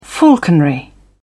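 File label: "falconry", British English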